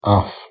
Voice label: "aff", Scots